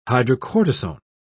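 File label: "hydrocortisone", British English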